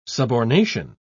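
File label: "subornation", British English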